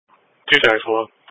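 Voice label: Police Patrol (Dispatch), Audio Call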